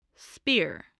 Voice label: "spear", English voice